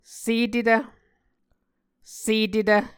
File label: "setetv", Muscogee